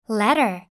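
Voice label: "letter", English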